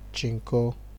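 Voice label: "chinko", Nigerian Pidgin, male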